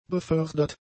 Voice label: "befördert", German; adjectives